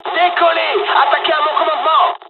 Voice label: japanese first wave, dialogs french; War Thunder